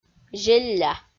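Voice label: "зелля", Komi, female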